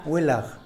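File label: "welaj", Tlacochahuaya Zapotec; caterpillar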